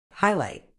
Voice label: "highlight", medical English